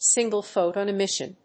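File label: "Single Photon Emission", English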